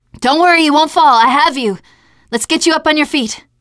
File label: ledge save, teengirl; player; survivor; voice